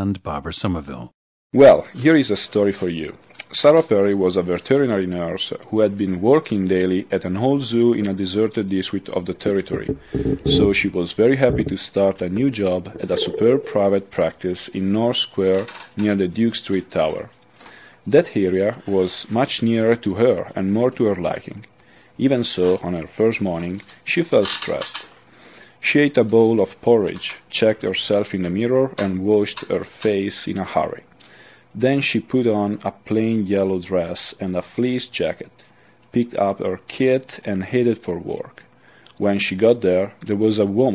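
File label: italy, International Dialects of English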